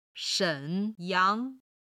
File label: "shen3yang2", chinese